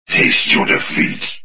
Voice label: anger; XBOX; galak